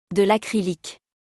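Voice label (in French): "De l acrylique", French; vocabulaire; le lexique de l'habillement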